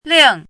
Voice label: "ling4", 汉字语音; chinese-voice

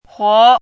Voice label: "huo2", 汉字语音

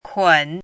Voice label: "kun3", 汉字语音; chinese-voice

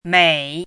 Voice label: "mei3", 汉字语音; chinese-voice

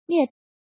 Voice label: "nve4", 汉字语音

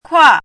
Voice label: "kua4", 汉字语音; chinese-voice

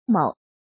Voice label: "mou3", 汉字语音; chinese-voice

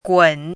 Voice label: "gun3", 汉字语音; chinese-voice

